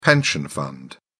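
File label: "pension fund", English, British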